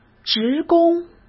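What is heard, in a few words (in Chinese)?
"职工", Mandarin Chinese; hsk; chinese-flashcards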